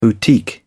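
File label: "boutique", British English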